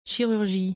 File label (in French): "chirurgie" French; vocabulary; doctors office